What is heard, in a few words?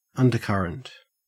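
"undercurrent", Australian English; pronunciations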